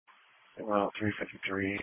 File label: Police Patrol 1 (Dispatch)